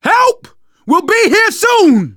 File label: radio used plane, survivor voice manager; Left 4 Dead 2; left4dead2 dlc3